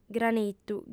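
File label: "granitu", female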